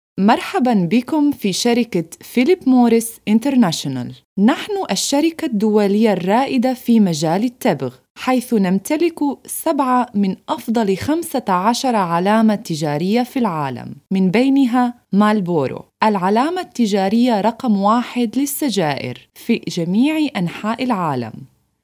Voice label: ARABIC Female; Philip Morris